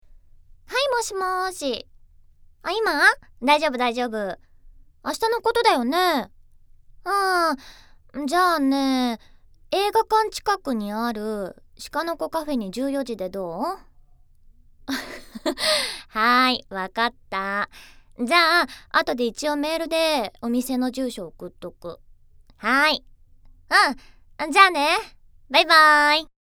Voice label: ナチュラル

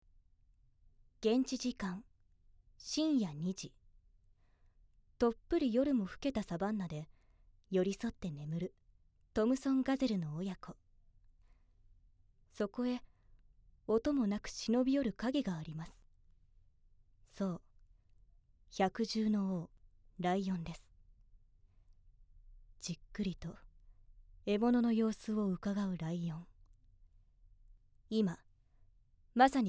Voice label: ドキュメント

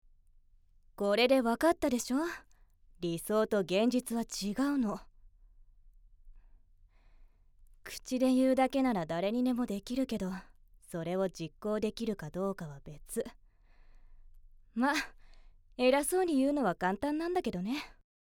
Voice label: 優しい先輩

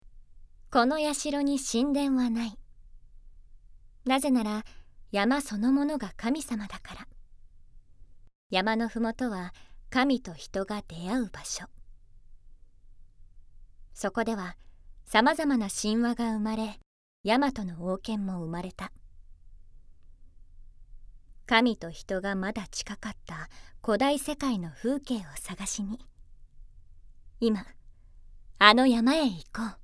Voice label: 番組ナレーション

